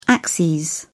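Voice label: "axes", British English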